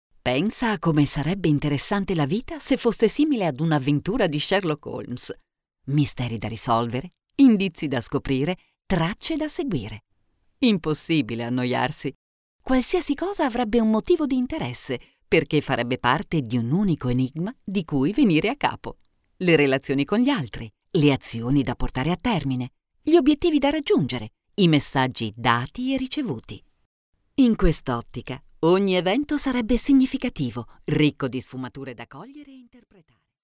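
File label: Italian female voice over, upbeat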